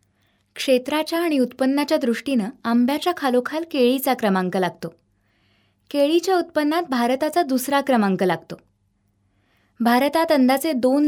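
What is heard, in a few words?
Marathi India, female, voice over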